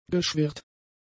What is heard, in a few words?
"geschwirrt", German; adjectives